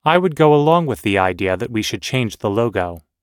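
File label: everyday agreeing, American English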